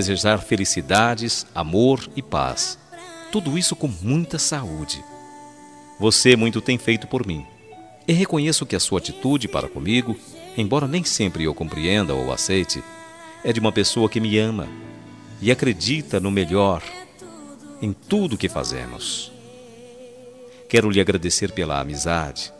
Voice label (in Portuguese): ANIV ROM EVANG MASC